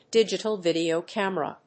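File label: "DIGITAL VIDEO CAMERA", English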